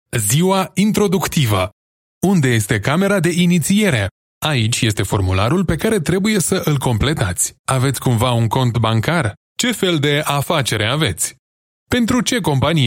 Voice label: romanian e-learning